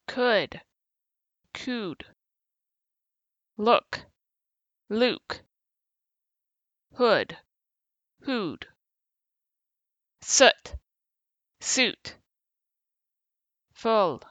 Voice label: compare oo uw words, English phonetics

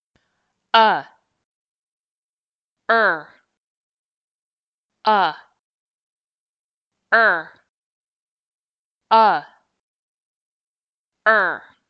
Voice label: compare uh er, English phonetics

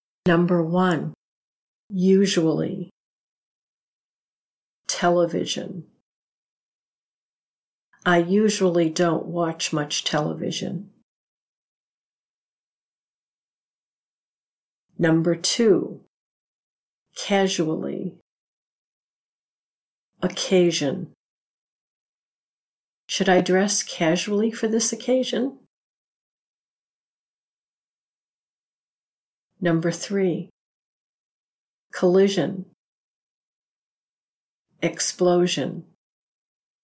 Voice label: zh sentences

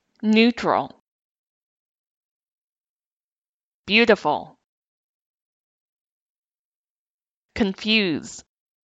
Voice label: stressed uw, English phonetics

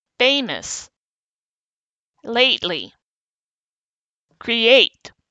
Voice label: stressed ey

